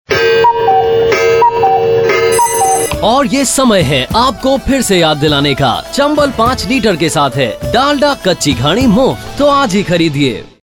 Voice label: Radio AD Time Check